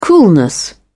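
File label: "coolness", British English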